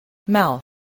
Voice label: "mouth", voice, spelling